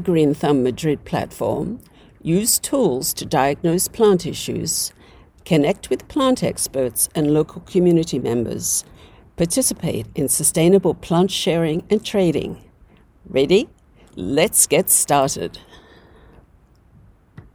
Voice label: E Learning How to grow plants